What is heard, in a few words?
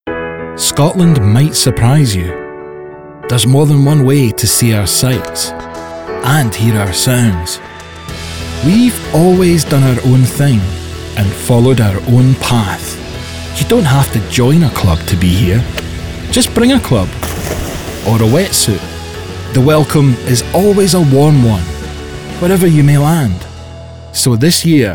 Scotland Tourism Commercial